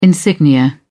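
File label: "insignia", British English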